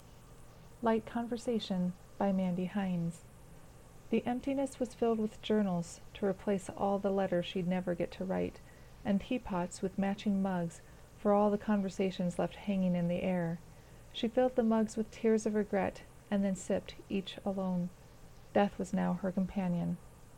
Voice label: Light Conversation